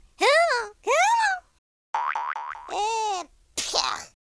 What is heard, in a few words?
taunt, Cowgirl; speech